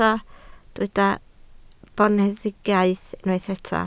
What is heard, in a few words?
"boneddigaidd", Welsh